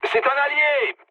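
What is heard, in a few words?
player friendly fire, mood low, French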